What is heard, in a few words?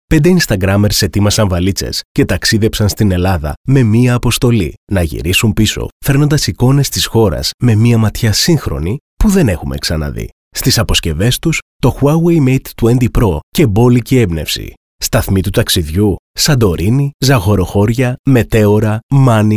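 Greek, male, e-learning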